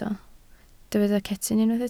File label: "cetyn", Welsh